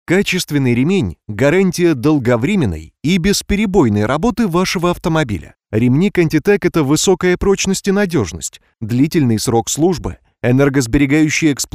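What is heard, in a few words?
Russian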